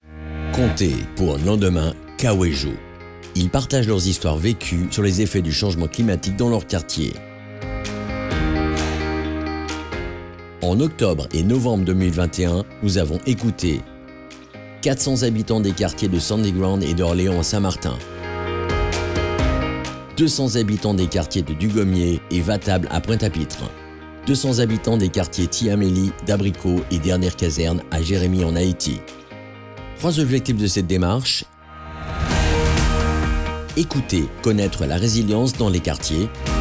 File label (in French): voix mix music